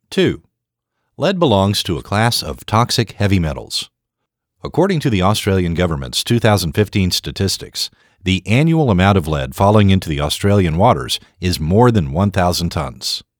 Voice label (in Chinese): 英文翻译; ECOFT钓鱼坠子